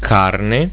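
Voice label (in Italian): "carne", Italian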